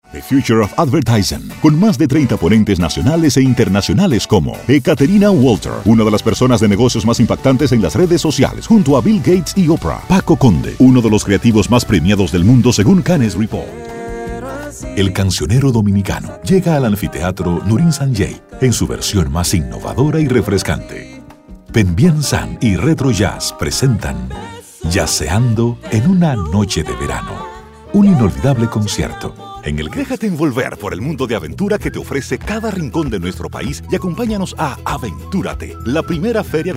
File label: spanish promos